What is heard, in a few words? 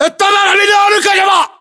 inform reloading generic, battlechatter, english